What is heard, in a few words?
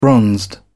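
"bronzed", British English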